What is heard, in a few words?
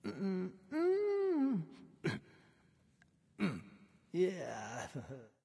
lobby victory vox rabbit f; smite